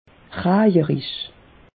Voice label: "rajerisch", alsace; meteo

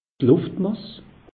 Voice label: "luftmass", alsace; meteo

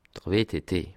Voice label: "vtt", alsace

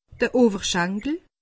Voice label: "oberschankel", alsace; medecine

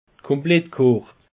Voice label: "komplett", alsace; peche

